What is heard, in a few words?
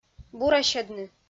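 "бурасьӧдны", Komi-Zyrian, female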